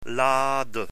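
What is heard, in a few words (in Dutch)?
"laadde", Dutch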